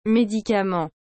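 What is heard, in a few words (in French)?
"medicament", French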